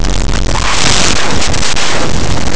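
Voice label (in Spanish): "choque", Spanish, africades; laboratori fonetica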